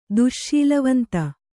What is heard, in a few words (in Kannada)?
"ದುಶ್ಶೀಲವಂತ", Kannada